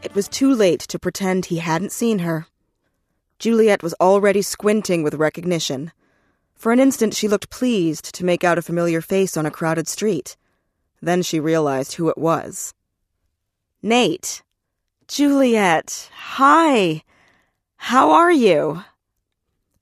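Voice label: African American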